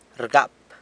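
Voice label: "rgap", slaps, San Lucas Quiaviní Zapotec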